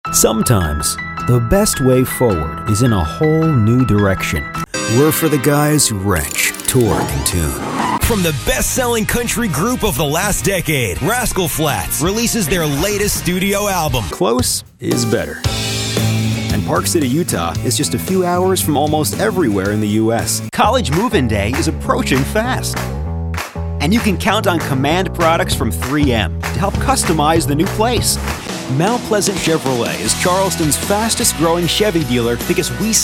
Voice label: Commercial; English (North American)